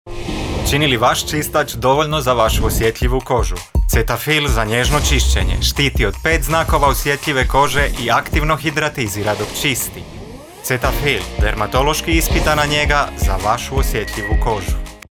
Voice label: Commecial, croatian